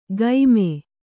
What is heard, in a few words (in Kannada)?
"ಗೈಮೆ", Kannada